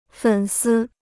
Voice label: "粉丝", Chinese